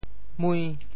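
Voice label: "mui5", Teochew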